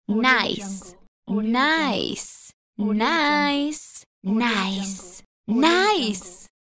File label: teen girl voice